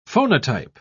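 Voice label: "phonotype", British English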